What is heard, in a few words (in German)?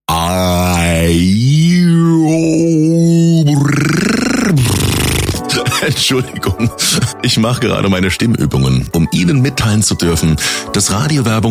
Sprecher Radio Werbung bekannte deutsche Stimme; deutschland oesterreich schweiz